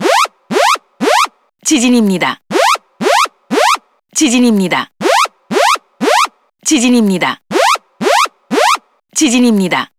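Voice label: cbs translated earthquake, Korean; iOS 26 Sounds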